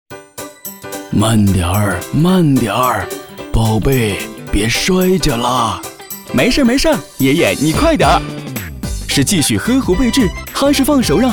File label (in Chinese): 宣传片 病毒 户外活动节目, 男